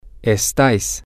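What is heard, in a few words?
yall Are Spain, Spanish, male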